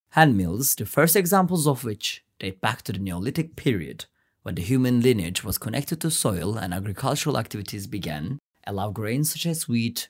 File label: english